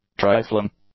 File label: "trifling", English; adjectives